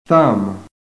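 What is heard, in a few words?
"thumb", American English; PHONETIC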